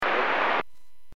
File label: Aviation 121.5 Emergency